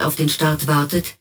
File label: LoFi Phrases, LoFi Vocals; Vengeance Samples